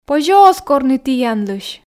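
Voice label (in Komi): позьӧ оз корны тіянлысь, Komi, female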